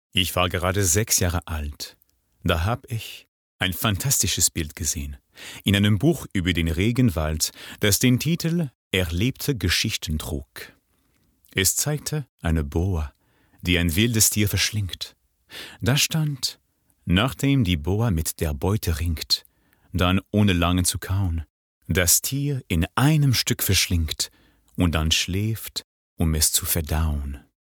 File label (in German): DE mit leichtem FR Akzent Hörbuch Erzählung; Die Boa; LOFT VOICES